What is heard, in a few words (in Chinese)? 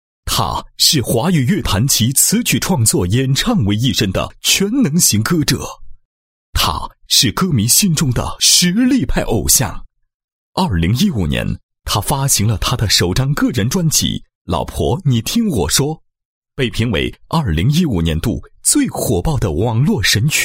男 人物介绍 嘉宾介绍; 勾辉简介